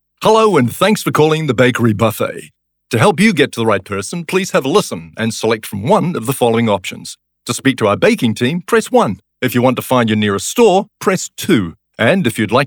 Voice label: NZ PHONE